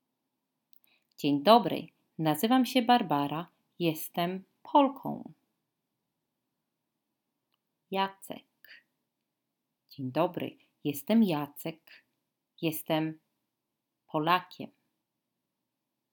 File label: dialogue, Polish language